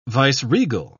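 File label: "viceregal", British English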